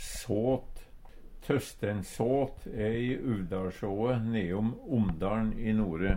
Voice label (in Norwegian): såt, Numedalsmål